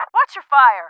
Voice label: watch, English

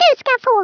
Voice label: "I'll get you", Swedish, Speech; 11khz; International

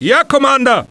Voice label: sail Drop, german

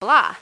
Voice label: sad (opponent team did well) - well..; Spades Deluxe

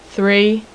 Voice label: 5 female; numbers

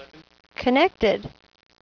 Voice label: "Connected", Female; Q95DEMO

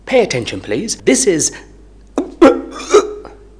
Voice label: news; The Sunday Times - Rich List 1997

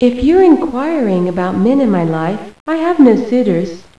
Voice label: Men

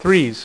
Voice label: "THREES", Dealer's Speech

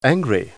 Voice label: angry; englisch

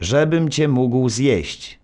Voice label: narr; Szkola Koziolka; CyberMycha 2003 October

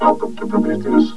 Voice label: Welcome... (Spoken); Prometheus 1.0

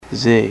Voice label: "z", male, alphabet; people; voice

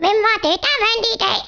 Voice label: "Victory", Danish

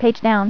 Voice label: "Page Down", Female; TalkingKeys

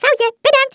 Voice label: "oops", Dutch; Speech; International; 11khz

